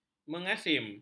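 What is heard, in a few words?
"mengasim", Malay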